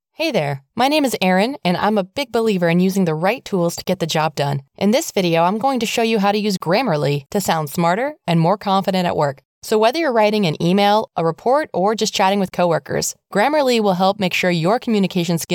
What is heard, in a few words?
E-Learning